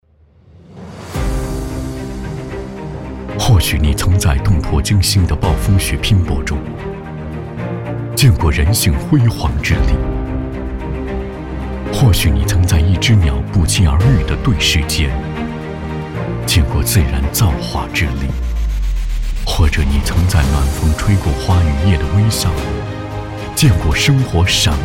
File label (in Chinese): 男, 活动宣传; 微博影像